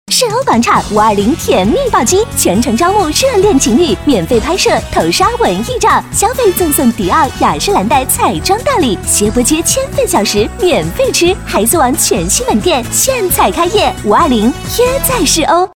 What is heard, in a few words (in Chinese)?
广告 节日 广场 激情; 女; 世欧广场